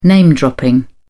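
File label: "name dropping", British English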